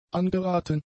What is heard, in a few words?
"angeraten", German; adjectives